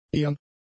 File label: "ehern", german; adjectives